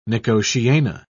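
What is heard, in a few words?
"nicotiana", British English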